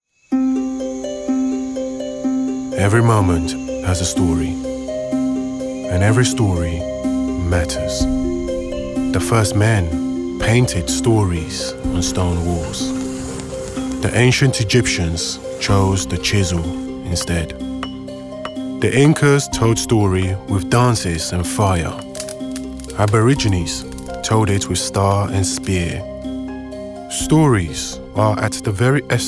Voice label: Narrative Montage, commercials